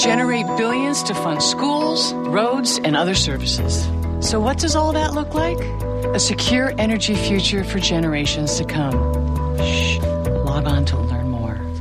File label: oil shale commercial